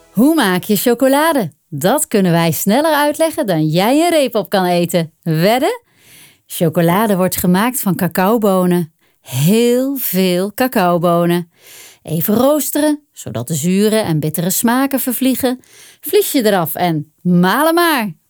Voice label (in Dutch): Animatievideo